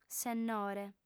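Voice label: "sennore", Sardinian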